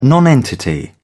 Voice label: "nonentity", en uk